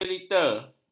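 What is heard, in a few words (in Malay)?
"cerita", Malay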